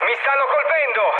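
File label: Italian aircraft damaged mood med, speech; War Thunder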